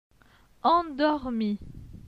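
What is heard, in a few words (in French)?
"Endormi", French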